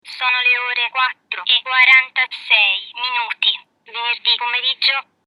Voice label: Talks Italian analog, Orologio parlante; Facile da usare e leggere con pulsanti di grandi dimensioni. Scocca durevole in plastica b